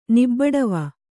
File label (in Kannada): "ನಿಬ್ಬಡವ", kannada